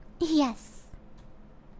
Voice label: "Yes", human girl evil; customnpcs

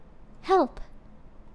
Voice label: "Help", human female villager; customnpcs

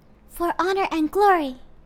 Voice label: For Honor and Glory, girl, heroic; customnpcs

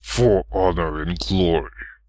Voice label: "For Honor and Glory", human male heroic; customnpcs

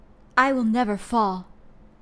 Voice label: "I Will Never Fall", human male heroic; customnpcs

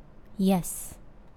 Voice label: "Yes", human male evil; customnpcs

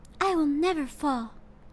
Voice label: I Will Never Fall, human girl heroic; customnpcs

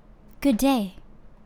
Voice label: Good Day, human male villager; customnpcs

